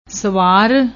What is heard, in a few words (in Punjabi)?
"swar", Punjabi